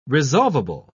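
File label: "resolvable", British English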